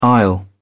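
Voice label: "aisle", British English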